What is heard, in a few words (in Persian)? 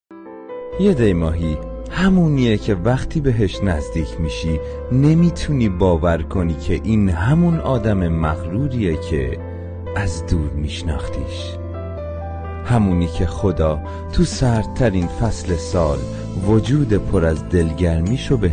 دکلمه متولدین دی ماه